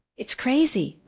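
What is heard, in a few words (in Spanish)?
Voice Acting, es, female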